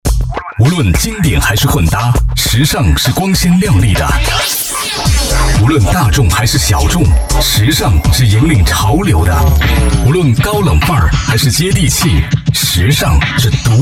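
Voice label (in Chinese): 成熟时尚男声片花; 时尚集团主编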